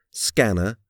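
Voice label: "scanner", English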